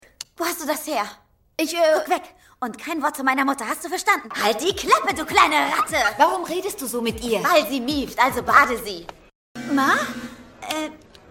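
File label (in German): synchron komödie, Lip-Sync, German; Eine tierische Bescherung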